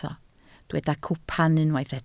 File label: "cwpan", Welsh